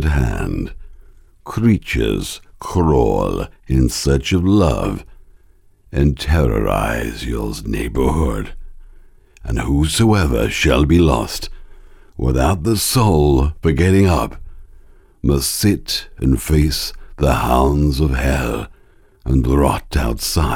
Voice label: deep scary dark style, uk voiceover